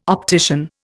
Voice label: "optician", Indian